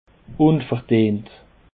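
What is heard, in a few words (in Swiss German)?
"unverdient", Alsatian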